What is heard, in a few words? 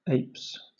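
"apes", British English; pronunciations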